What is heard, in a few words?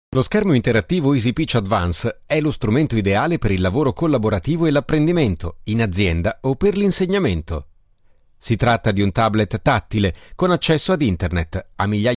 italian speaker